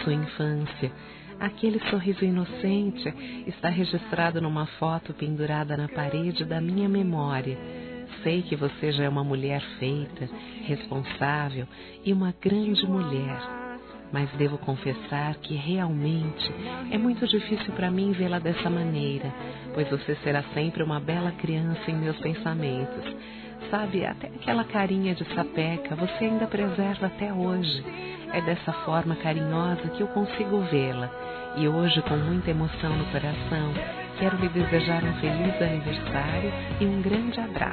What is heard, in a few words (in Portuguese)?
NETA F, Telemensagens, Aniversários, Fem; Aniv Familiar